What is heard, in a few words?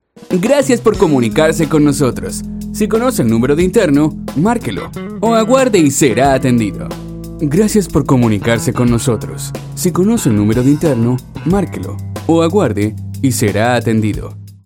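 IVR neutral; Neutro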